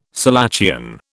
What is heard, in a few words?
"selachian", British